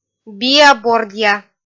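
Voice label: "биа-бордъя" Komi, female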